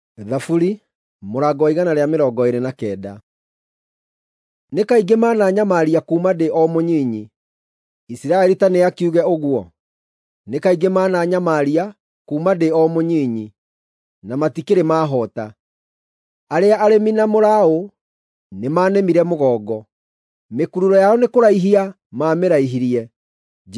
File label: psalms, gikuyu drama